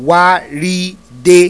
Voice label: "waride", Yoruba; TONES; WA RI DE set

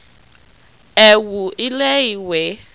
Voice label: "ewu ile iwe", Yoruba

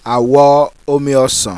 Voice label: "AWO OMI OSAN", Yoruba, Orange

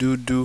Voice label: "DUDU", Yoruba, Black

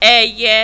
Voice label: "eye", Yoruba; ORAL VOWELS; eye-eje-ege

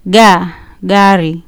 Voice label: "dari", Kiswahili

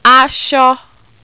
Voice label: "aso", Yoruba

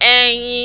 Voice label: "eyin", Yoruba; NASAL VOWELS; irin-eyin-igbin

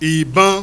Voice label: "ibon", Yoruba; NASAL VOWELS; ogbon-ibon-agbon

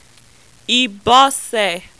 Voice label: "ibose", Yoruba

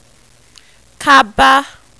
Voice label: "kaba", Yoruba

